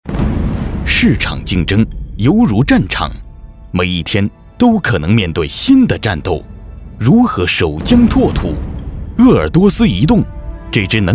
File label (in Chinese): 男 专题